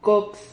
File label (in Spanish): "cox", Silabas